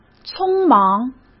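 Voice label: "匆忙" Mandarin Chinese; hsk; chinese-flashcards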